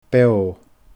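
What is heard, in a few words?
"beoo", Scottish Gaelic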